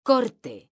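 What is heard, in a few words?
"CORTE", Spanish, female; mekanta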